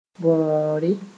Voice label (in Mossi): "bõore", moore